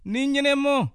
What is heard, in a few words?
my name is, bassa; Ní nyɛ́nɛ́ mɔ̀…